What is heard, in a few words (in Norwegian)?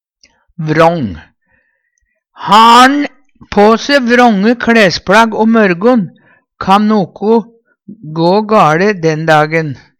vrång, Numedalsmål; Har' n på se vrånge kLæspLagg om mørgon, kan noko gå gaLe den dagen.